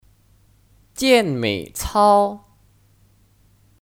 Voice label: "健美操", Jiànměi cāo; Kata benda; Aerobik

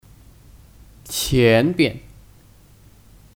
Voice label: "前边", Qiánbian

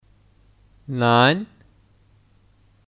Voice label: "南", Nán; Nán: Selatan

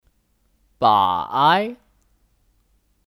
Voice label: "百", Bǎi; Kata bilangan; Seratus/ ratusan

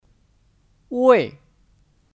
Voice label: "位", Wèi; Kata satuan

